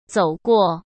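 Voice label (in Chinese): "走过", Mandarin Chinese; hsk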